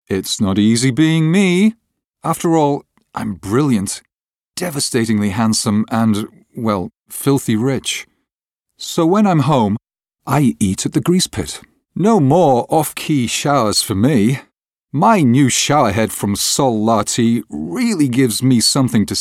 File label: Commercials Studio Quality Sample No Music